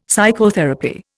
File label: "psychotherapy", Indian; Dictionary